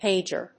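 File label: "pager", English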